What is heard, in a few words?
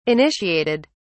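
"initiated", British English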